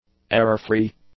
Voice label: "error-free", English; adjectives